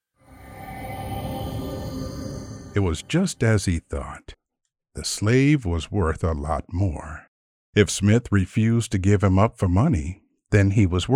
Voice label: Audiobook